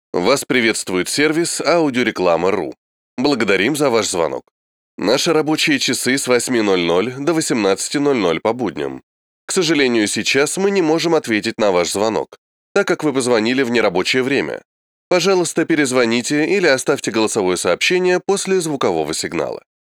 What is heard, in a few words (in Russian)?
IVR